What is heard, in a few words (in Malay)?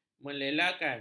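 "melelakan", Malay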